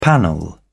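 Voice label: "panel", British English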